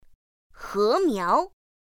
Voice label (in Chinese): "hémiáo", pinyin; pinyin2; pinyinji